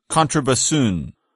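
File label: "contrabassoon", English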